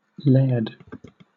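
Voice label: "laird", British English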